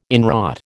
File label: "inwrought", American